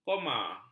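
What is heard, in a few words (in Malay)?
"koma", Malay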